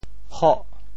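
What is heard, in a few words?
"hoh8", Teochew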